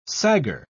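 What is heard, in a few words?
"saggar", British English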